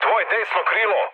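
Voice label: Serbian right wing mood med; War Thunder; speech srb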